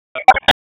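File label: Raleigh Police North District Tactical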